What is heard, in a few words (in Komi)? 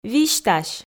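"висьтась", Komi, female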